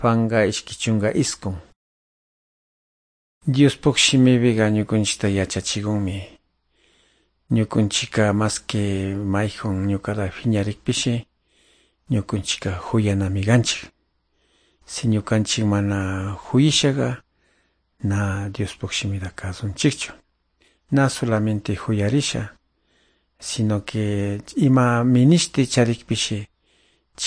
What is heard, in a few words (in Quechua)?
good news quichua de calderon